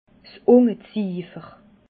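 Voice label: "ungeziifer", Alsatian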